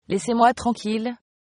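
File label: leave me alone, French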